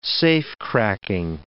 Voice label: "safecracking", English